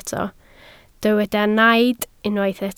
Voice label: "naid", Welsh